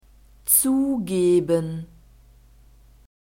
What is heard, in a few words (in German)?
"zugeben", German